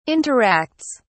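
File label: "interacts", British English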